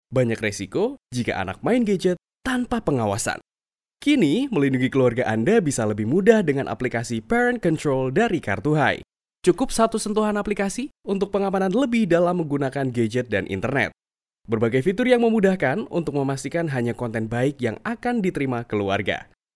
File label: CASUAL INDONESIA